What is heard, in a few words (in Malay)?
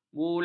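"bulat", Malay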